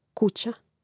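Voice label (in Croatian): "kuća", Croatian; Mrežnik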